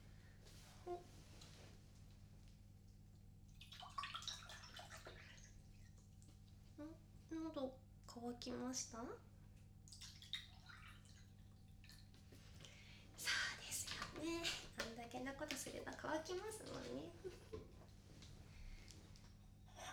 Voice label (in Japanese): ボイスドラマ バイノーラル; 「ティータイム」